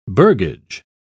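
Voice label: "burgage", British English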